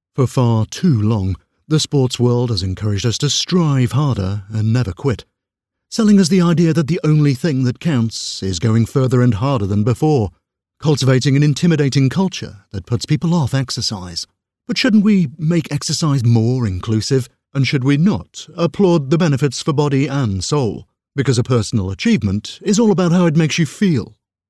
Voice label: voiceover commercial sports